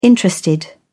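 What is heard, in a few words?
"interested", English, British English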